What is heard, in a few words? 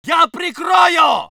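voice soviet infantry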